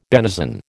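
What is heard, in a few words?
"benison", American English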